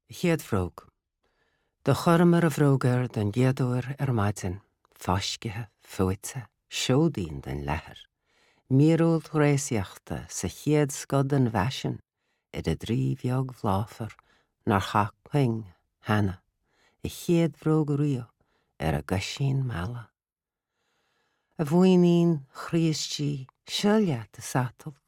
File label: Irish